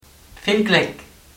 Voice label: good luck, luxembourgish